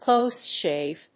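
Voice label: "close shave", English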